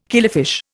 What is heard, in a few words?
"killifish", Indian